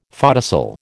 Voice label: "photocell", American